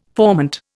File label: "formant", Indian